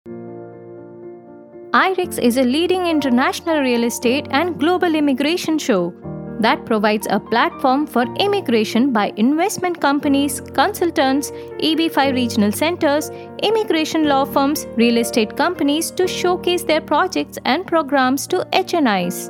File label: Corporate English